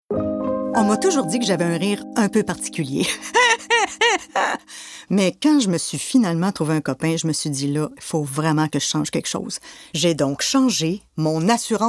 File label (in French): Publicité; Beneva